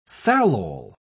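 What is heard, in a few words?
"salol", British English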